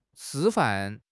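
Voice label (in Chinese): "师范", Chinese; HSK6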